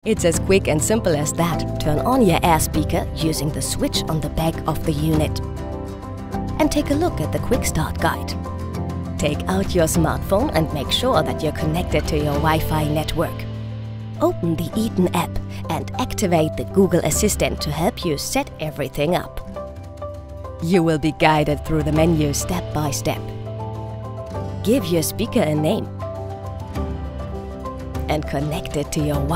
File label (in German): Erklaerfilm EN Quickstart; ETON